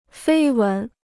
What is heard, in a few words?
"绯闻", Chinese